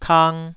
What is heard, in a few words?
"kang2", taiwanese syllable